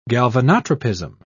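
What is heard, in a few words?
"galvanotropism", English, British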